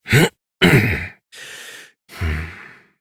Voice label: Horse Dismount Adult; Duviri

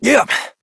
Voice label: Horse Start Adult, Dialog; Duviri

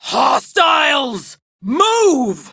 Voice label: Enemy Spotted Female; Warframe; Tau; Prime Grineer Female; Battle

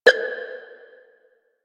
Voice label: Static, Battle Barks; Warframe; Lasrian; Lasrian Enemy C

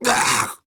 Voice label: Pain Grineer Ghoul Sarge, en

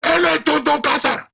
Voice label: Player Spotted Grineer Ghoul Sargeant, en; Grineer Ghoul Drill Sargeant; Battle Barks

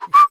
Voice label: Horse Stop Walk Adult; Duviri

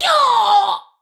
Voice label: Death Ranged, Battle Barks; Tau; Tau Dax

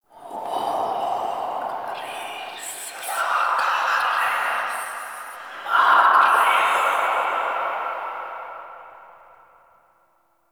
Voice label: Whispers; Inf Hub Lab; Behold Us; Warframe; Ambience; Entrati

